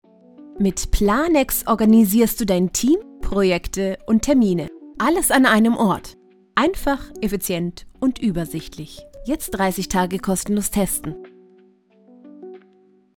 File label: commercial; planex